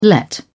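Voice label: "let out", British English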